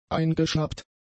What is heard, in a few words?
"eingeschnappt", German; adjectives